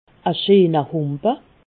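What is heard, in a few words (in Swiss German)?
"scheener", Haut Rhin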